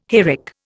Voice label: "hayrick", Indian